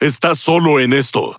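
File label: Spanish Speech; Quick Commands No Way; World Of Warships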